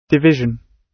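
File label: "division", British English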